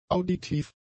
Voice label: "auditiv", German; adjectives